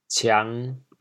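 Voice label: "ciang5", Teochew, chaoyang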